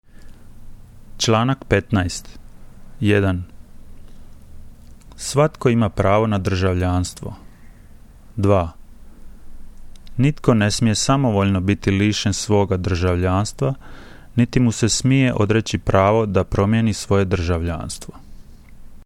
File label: Croatian